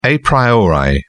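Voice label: "a priori", British English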